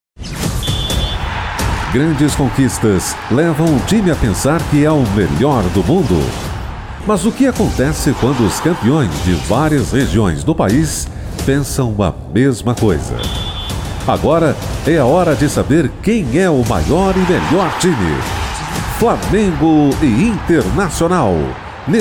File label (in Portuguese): locutor estilo padrao; estilo nilson padrao globo